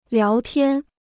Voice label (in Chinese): "聊天", Chinese